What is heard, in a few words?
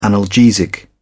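"analgesic", British English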